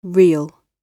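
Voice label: "real", British English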